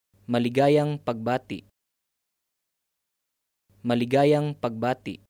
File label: Congratulations Tagalog